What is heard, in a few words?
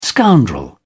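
"scoundrel", British English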